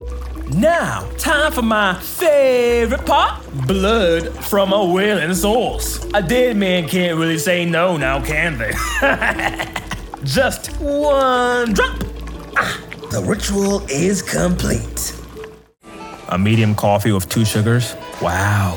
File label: Character